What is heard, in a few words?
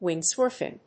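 "windsurfing", English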